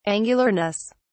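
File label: "angularness", British English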